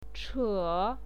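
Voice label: "che", Mandarin Chinese, third tone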